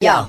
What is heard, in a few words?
"yo", Voice